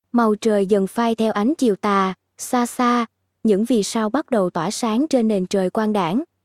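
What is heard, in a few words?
Vietnamese; vi-VN; iOS Sounds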